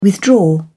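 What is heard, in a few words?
"withdraw", English, British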